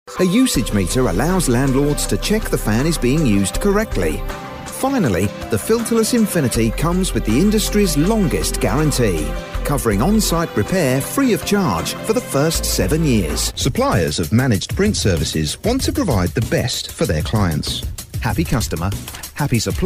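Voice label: English British, male, voice over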